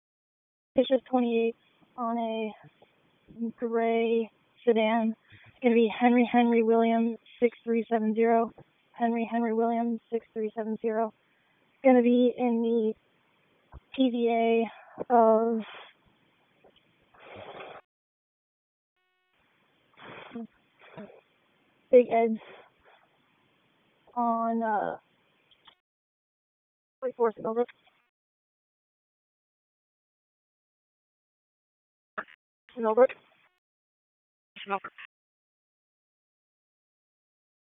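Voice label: Police Northeast District Dispatch, Raleigh; wake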